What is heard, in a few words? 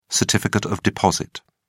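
"certificate of deposit", British English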